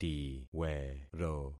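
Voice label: "tiwero", concatenated syllables; Affixing experiment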